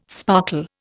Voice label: "sparkle", Indian

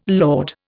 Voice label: "lord", Indian

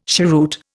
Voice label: "cheroot", Indian

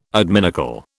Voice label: "adminicle", British

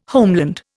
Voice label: "homeland", Indian

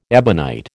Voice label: "ebonite", American

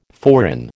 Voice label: "foreign", American

